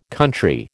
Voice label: "country", American